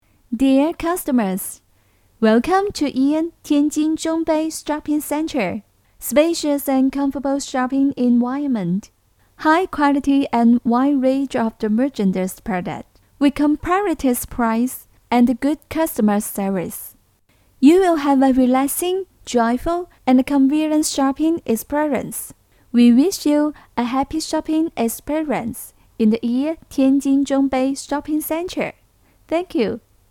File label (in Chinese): 英语女声Public address systems 娓娓道来 积极向上 亲切甜美; 粤语人物角色 家人+丈母娘+女村民 素人